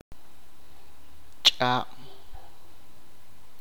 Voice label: flecha, achi